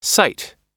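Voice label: "site", American English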